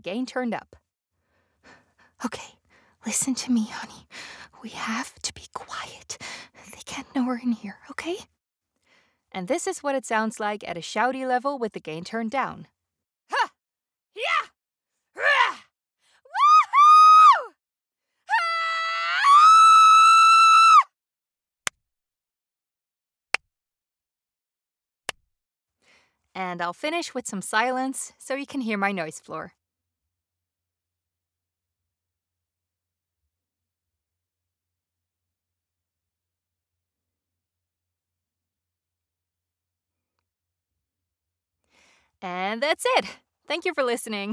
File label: Vocal Booth Sample Neumann TLM103 RAW